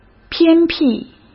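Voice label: "偏僻" Mandarin Chinese; chinese-flashcards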